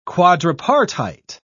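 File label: "quadripartite", English, British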